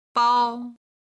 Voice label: "bao1", Chinese